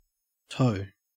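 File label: "toe", Australian English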